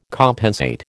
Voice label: "compensate", American